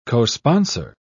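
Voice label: "cosponsor", British English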